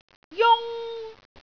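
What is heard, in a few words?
"jung1", Cantonese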